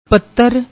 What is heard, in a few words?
"pattar", Punjabi